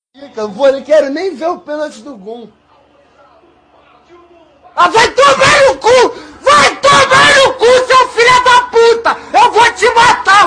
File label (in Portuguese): torcedor do fluminense se revolta